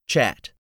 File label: "chat", English word; 3000 words